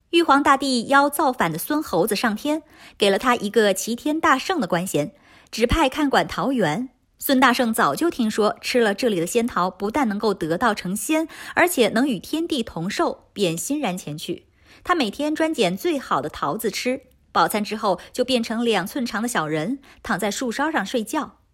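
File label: Chinese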